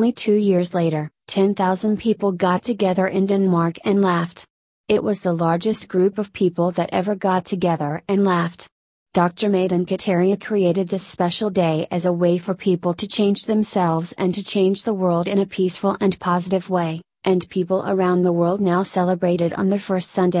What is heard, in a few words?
laughter day, usa